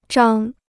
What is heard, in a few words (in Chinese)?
"章", Chinese